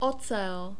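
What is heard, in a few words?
"ocel", Czech, male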